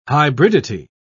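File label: "hybridity", British English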